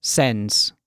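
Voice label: "sends", British English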